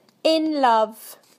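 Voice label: "in love", British English; PictureDictionary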